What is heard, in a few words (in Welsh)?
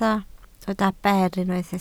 "ber", Welsh